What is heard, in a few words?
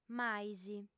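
"mais", female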